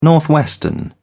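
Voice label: "northwestern", British English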